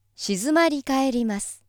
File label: female